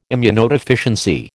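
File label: "immunodeficiency", American English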